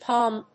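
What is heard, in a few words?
"pwm", English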